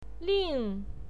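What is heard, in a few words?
"ling", pinyin, fourth tone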